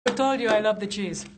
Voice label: mystery voice